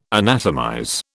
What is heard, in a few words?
"anatomize", British